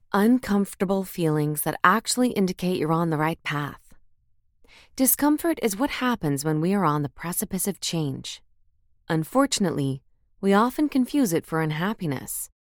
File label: General American Audiobook